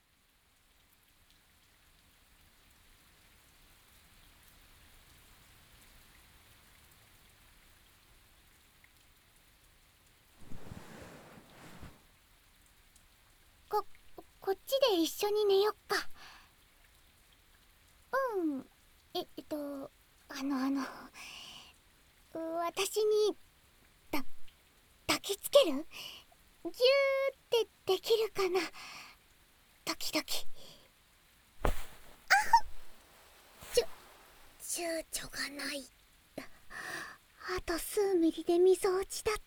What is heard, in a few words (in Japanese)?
吐息・ハグ・添い寝・寝息・翼で包む音, ASMR